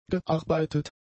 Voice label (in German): "gearbeitet", German; adjectives